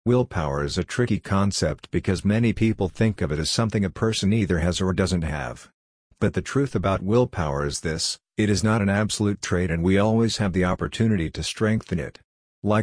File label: Willpower Male Audio